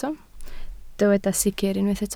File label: "sicr", Welsh